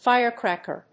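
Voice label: "firecracker", English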